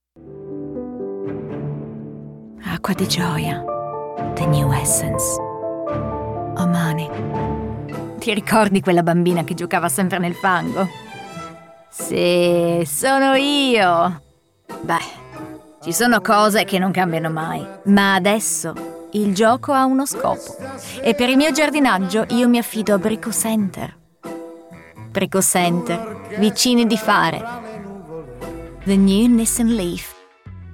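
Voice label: Italian English commercial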